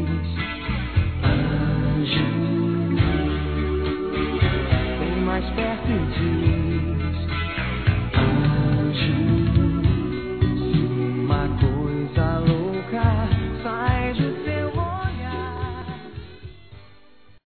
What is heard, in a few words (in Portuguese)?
Aniversário Geral Infantil Feminino; Telemensagens